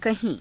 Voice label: "kahII", Hindi; [副]はるかに; कहीं

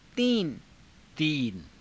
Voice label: "tiina", hindi

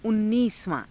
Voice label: "unniisavaa~", hindi

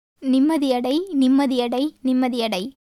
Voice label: Tamil

